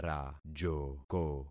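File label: "rajoko", concatenated syllables; Affixing experiment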